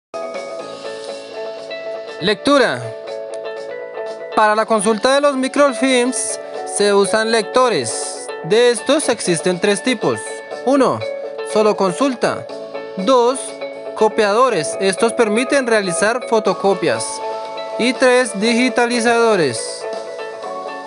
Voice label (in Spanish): lectura